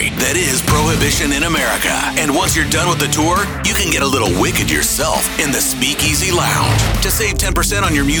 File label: Rock Imaging Production and VO